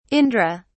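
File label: "indra", British English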